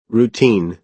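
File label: "routine", American English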